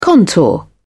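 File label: "contour", British English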